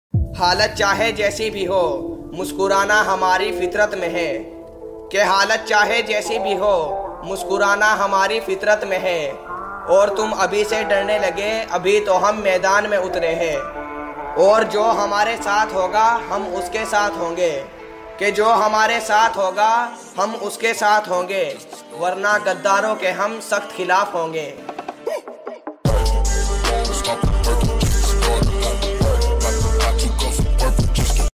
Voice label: Bad Boy Attitude Shayari Ringtone